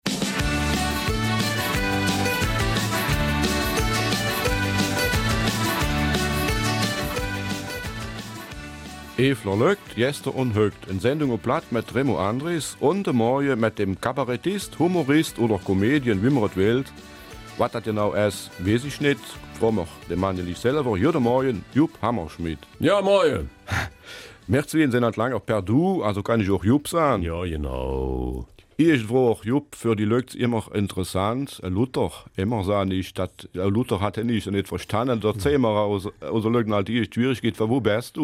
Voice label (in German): Eifeler Mundart